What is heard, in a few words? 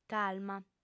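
"calma", female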